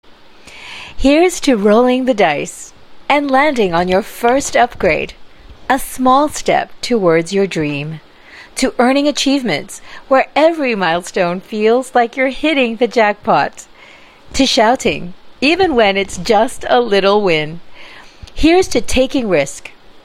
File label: Asian English Clean Read; Clean Demo Read